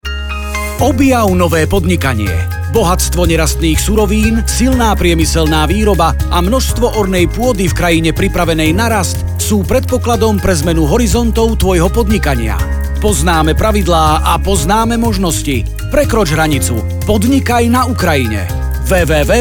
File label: radio spot